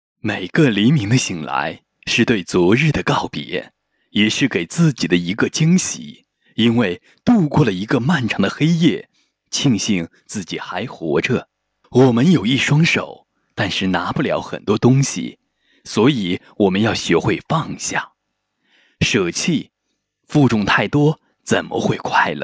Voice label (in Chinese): 时尚大气广告柔情广告